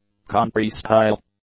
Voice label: "country-style", English; adjectives